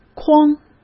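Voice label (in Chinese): "筐", Mandarin; chinese-flashcards; hsk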